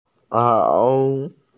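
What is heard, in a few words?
"kao3", Mandarin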